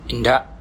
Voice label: no, bonggi